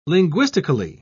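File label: "linguistically", British English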